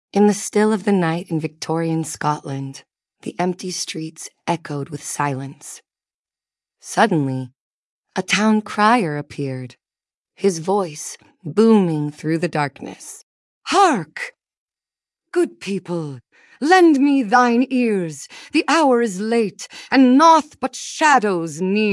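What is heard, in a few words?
Wee Willie Winkie, female, US, story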